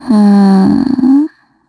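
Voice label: Vox Think, Korean; Gremory; kingsraid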